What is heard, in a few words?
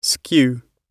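"skew", British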